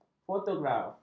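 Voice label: "fotograf", Malay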